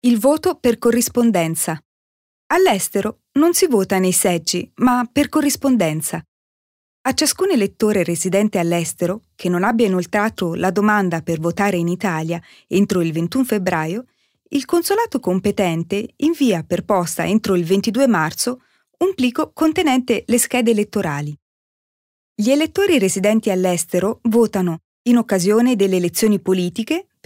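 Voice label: Italian, Kommentar Neutral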